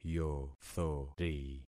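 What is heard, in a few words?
"yothori", concatenated syllables; Affixing experiment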